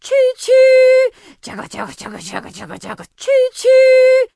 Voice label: Dwarf Female Choo Choo